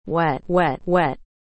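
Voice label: "wet", English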